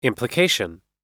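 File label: "implication" US English; ˌɪmpləˈkeɪʃ(ə)n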